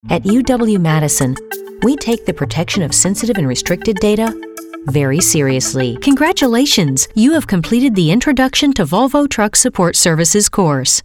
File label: eLearning, Female Voice Over